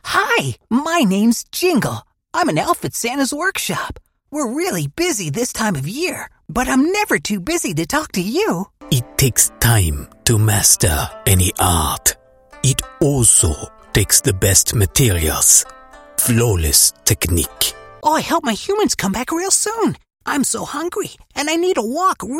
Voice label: Character Voices